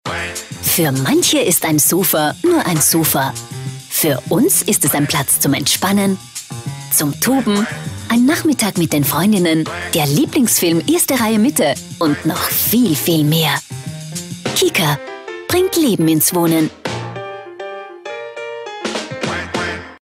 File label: TV Spot; KIKA